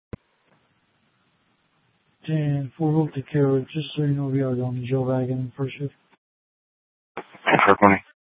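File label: Sheriff, Parks, Sheriff radio scanner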